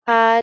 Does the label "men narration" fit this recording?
No